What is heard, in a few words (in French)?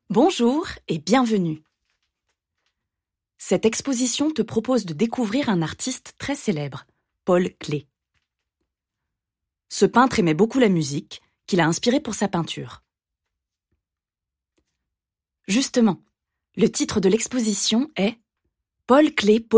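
VOIX OFF (Langue espagnole) - Pub, comédienne; TURESPAÑA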